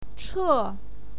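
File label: "che", Mandarin Chinese, fourth tone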